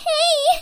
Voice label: anime girl "hey"